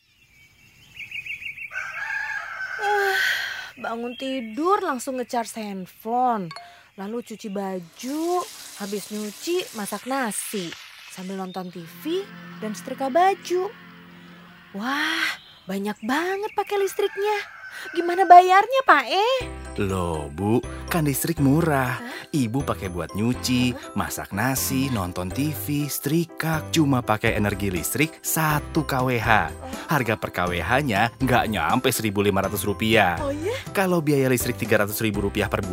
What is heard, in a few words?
DIALOG; PLN; MANFAAT 1 KWH